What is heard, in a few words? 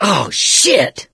reaction negative, survivor voice mechanic